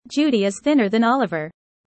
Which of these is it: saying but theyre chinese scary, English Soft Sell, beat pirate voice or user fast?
user fast